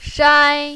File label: "shai1", mandarin, female